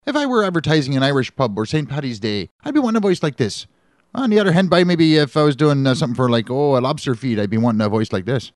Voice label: irish